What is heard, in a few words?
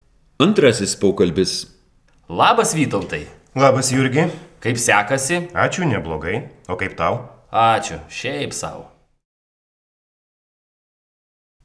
Dialog